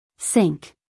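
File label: "sink", American English female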